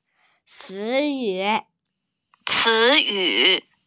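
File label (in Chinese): "ci2yu3", Mandarin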